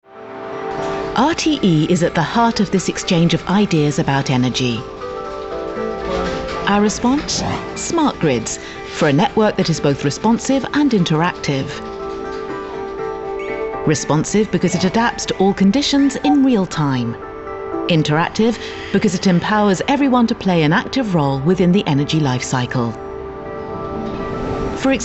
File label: corporate; rte